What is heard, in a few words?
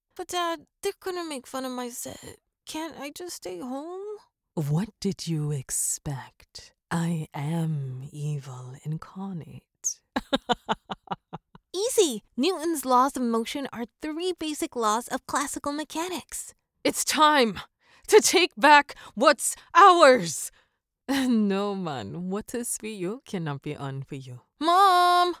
english american animation